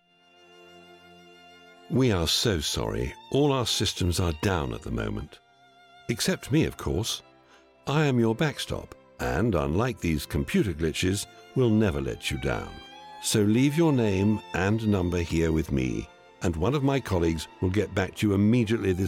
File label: IVR Medical